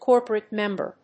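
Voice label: "corporate member", English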